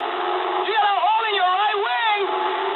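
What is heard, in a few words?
English right wing mood med; War Thunder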